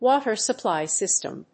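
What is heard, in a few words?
"Water Supply System", English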